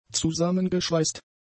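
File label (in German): "zusammengeschweißt", German; adjectives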